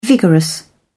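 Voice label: "vigorous", British English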